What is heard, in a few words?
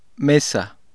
"mesa", spanish